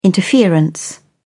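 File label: "interference", English, British English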